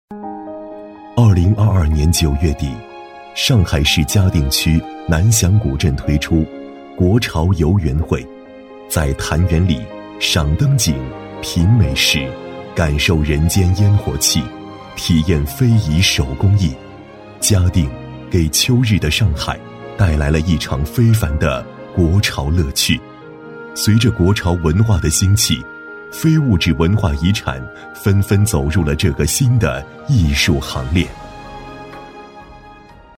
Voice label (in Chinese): 男 纪录片 磁性抒情; 非遗新体验; 低沉磁性 质感磁性